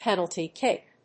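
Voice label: "penalty kick", English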